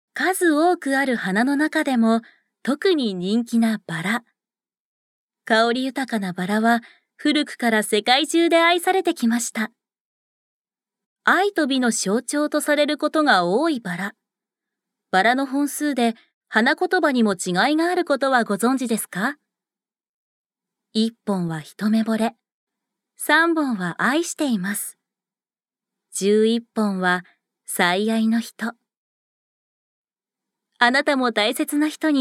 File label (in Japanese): 落ち着いたナレーション